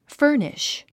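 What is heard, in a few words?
"furnish", English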